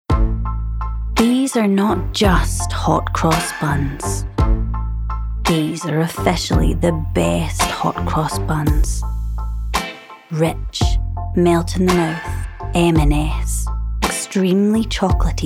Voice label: commercial

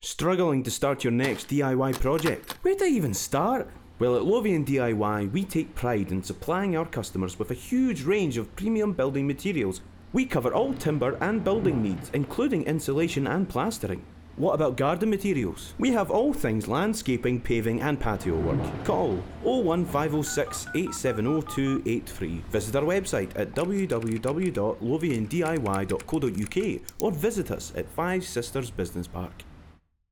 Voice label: Commercial

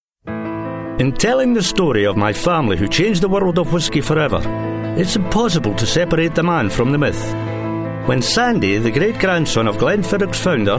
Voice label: voice over reel

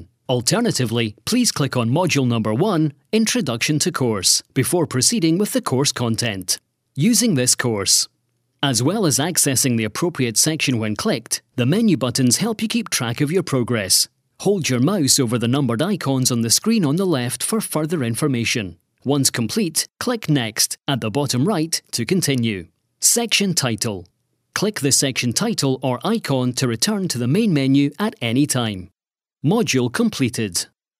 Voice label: e-learning

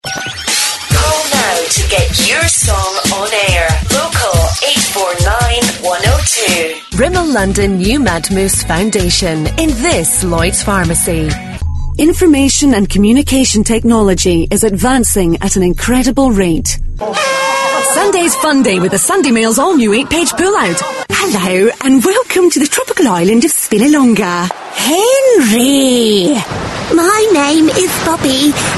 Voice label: Radio Advertising, Scottish

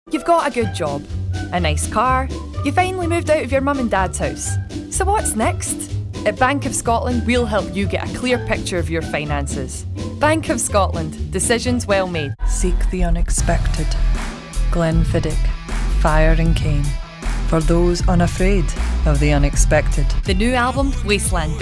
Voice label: commercial mono

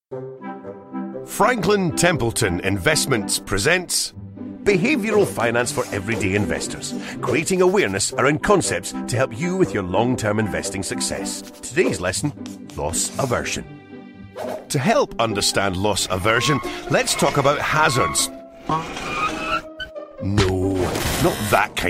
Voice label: Scottish Voice Overs Explainer

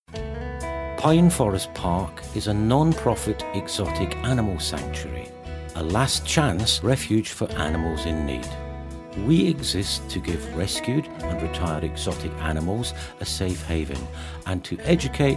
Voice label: appeal promo, voices

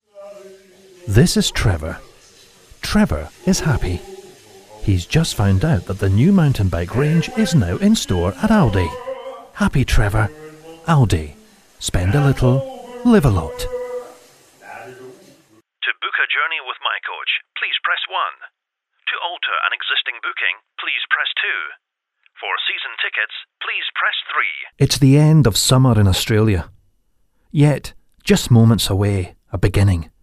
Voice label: Scottish Voice Over

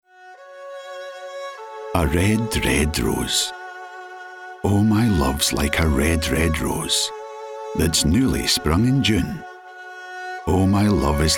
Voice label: Character

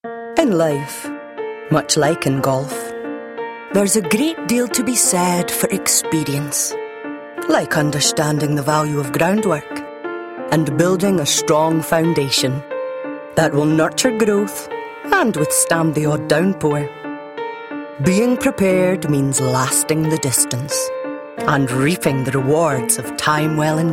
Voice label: Voice Over Reel

